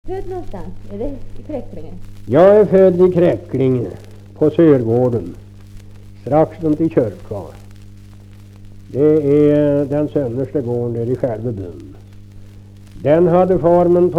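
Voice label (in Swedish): Kräklinge Närke, dialekter; Folkminnen; Svenska sagor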